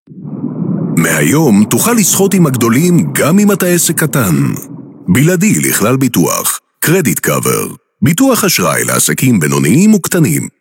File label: hebrew corporate videos